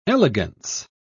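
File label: "elegance", British English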